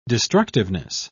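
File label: "destructiveness", British English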